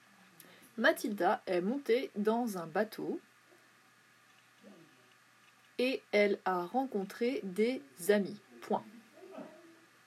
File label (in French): Dictée